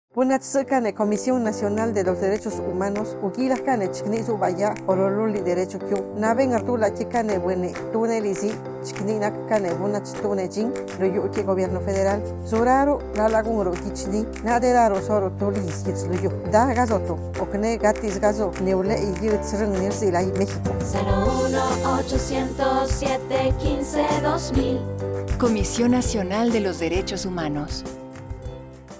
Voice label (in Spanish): Spot Zapoteco; Campanas